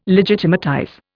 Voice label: "legitimatize", Indian